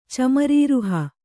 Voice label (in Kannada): "ಚಮರೀರುಹ", kannada